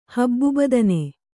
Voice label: "ಹಬ್ಬುಬದನೆ", kannada

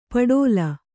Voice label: "ಪಟೋಲ", Kannada

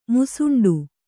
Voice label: "ಮುಸಂಡಿ", Kannada

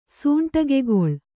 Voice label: "ಸೂಂಟಗೆಗೊಳ್", Kannada

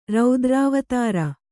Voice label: "ರುದ್ರಾವತಾರ", kannada

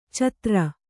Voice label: "ಛಾದರು", Kannada

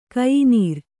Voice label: "ಕಯಿನೀರ್", Kannada

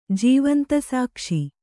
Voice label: "ಜೀವಂತಸಾಕ್ಷಿ", kannada

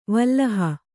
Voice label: "ವಲ್ಲಹ", Kannada

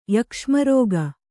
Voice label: "ಯಕ್ಷ್ಮರೋಗ", Kannada

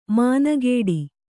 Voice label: "ಮಾನಗೇಡಿ", Kannada

